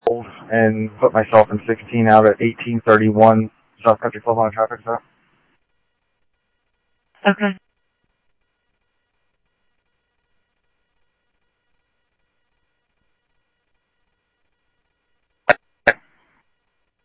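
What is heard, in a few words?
Simulcast police department, scanner audio trunk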